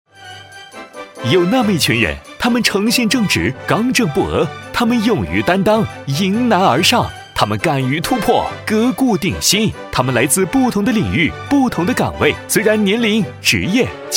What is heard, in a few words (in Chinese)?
男 先导片; Best顺丰人